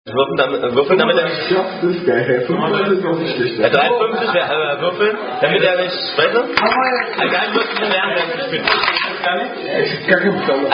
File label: Unknown German Dice Drinking Game; Action Danger